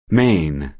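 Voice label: "main", American English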